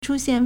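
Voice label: "chūxiàn", Chinese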